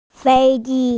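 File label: "fēi jī", Mandarin Chinese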